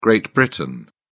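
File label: "great britain", British English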